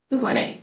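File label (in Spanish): Voice Acting, es, female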